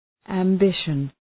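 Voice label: "ambition", English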